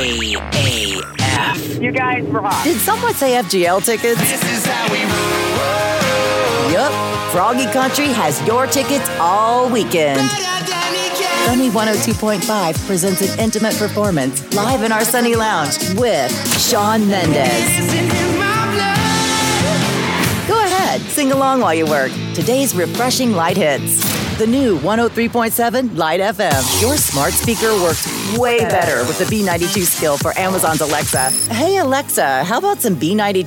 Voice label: american voiceover continuity radio